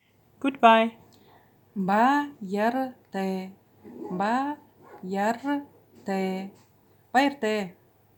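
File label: Buryat Good Bye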